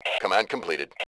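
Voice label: command completed, voice Male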